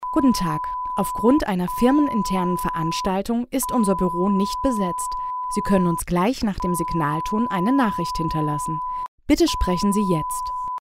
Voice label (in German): Sprecherin piep Ansage